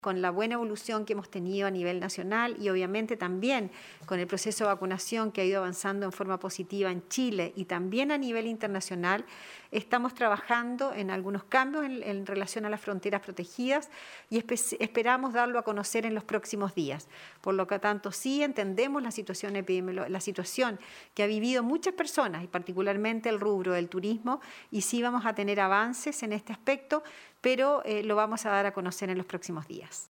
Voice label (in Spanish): cuña